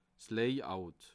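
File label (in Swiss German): "layout", Bas Rhin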